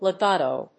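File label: "legato", English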